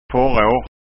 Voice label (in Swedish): "forar", skanskaord; Vår; Springtime; Fodre; Feed